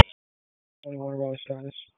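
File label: Police District Dispatch, p25; Raleigh; Southeast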